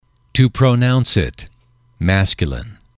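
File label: "to pronounce it", English, male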